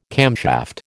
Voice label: "camshaft", American